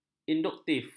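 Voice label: "induktif", Malay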